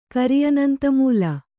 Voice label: "ಕರಿಅನಂತಮೂಲ", Kannada

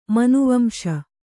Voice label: "ಮನುವಂಶ", Kannada

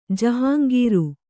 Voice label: "ಜಹಂಗೀರು", Kannada

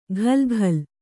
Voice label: "ಘಲ್‍ಘಲ್", Kannada

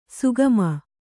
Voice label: "ಸುಗಮ", Kannada; sugama; noun; = ಸುಗಮತೆ.

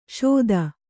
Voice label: "ಷೋದಾ", Kannada

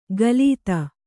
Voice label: "ಕಲಿತ", Kannada

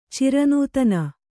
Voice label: "ಚಿರನೂತನ", Kannada

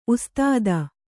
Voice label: "ಉಸ್ತಾದ", Kannada

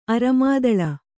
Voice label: "ಅರೆಮಾದಲ", Kannada

